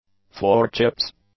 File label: "thwartships", English; adjectives